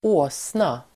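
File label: "åsna", Swedish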